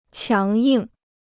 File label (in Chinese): "强硬", Chinese